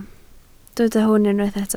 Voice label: "hwn", Welsh